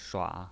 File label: "shua3", pinyin